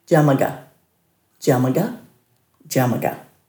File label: "djamaga", Dhurga